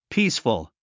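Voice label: "peaceful", English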